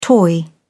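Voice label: "toy", British English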